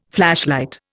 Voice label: "flashlight", Indian